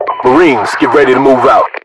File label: ready to move, US voiceovers